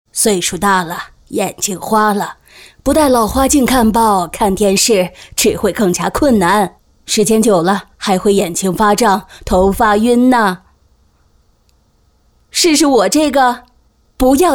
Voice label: 女 人物模仿 60岁奶奶, 国语女声配音

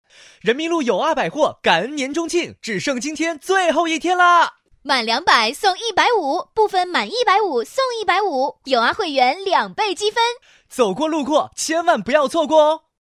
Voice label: 男女合配, 磁性

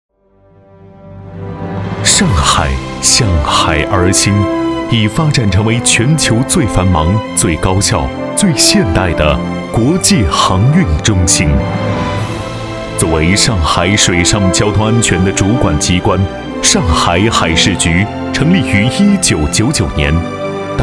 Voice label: 男 政府宣传片 解说; 上海海事局

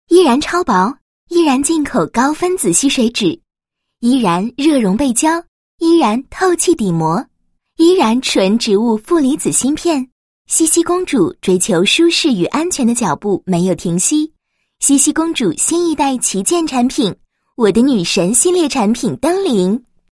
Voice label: 女-广告

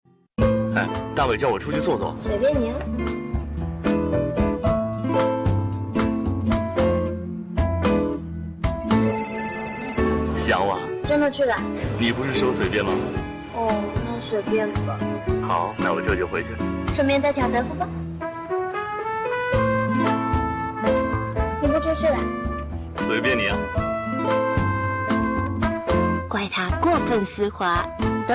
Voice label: 男 角色 巧克力, 国语男声配音; 德芙; 央视

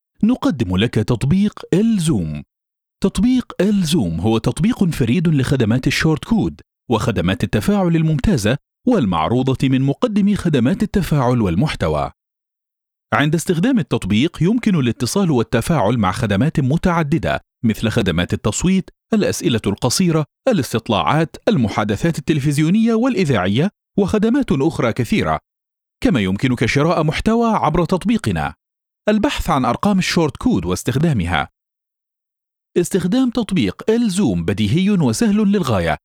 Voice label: 阿拉伯语男 干音 宣传片

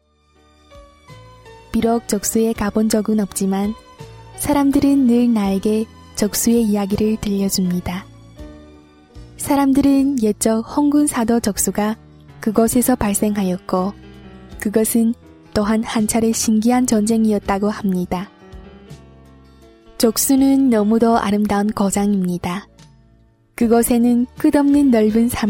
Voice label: 韩语, 配乐诗

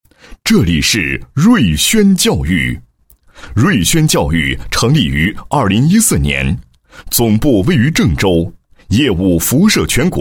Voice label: 男 解说 教育解说词; 瑞轩教育解说词

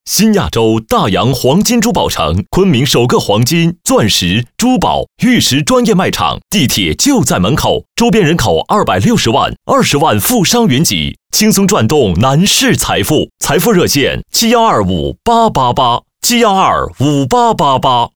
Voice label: 男2 广告 促销类, 国语男声配音; 大阳黄金卖场

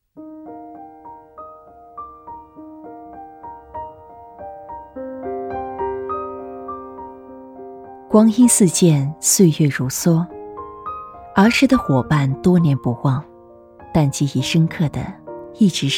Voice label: 女 同桌讲述

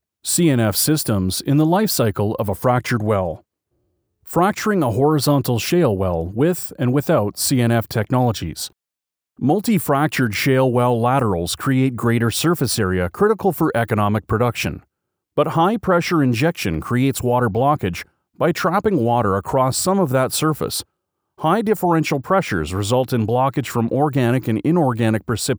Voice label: 外籍男; CNF Systems

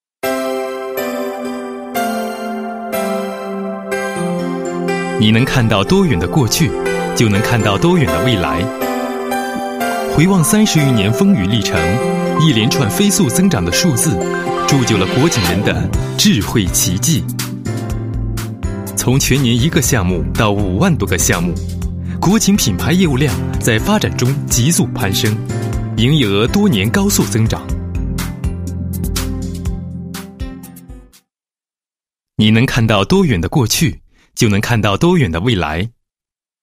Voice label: 男-家具企业; 国景办公家具

